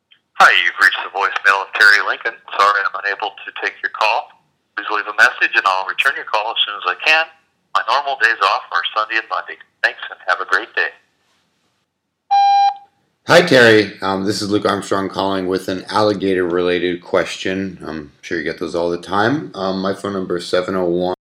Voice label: Zoo voicemail